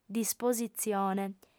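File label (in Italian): "dispositzione", lingua sarda, female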